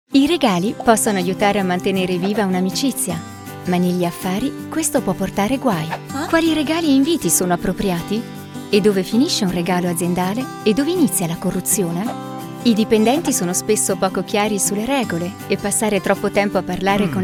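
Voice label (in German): Erklärfilm; EQS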